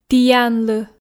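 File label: "тіянлы", Komi, female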